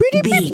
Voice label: voice fun character cute cartoon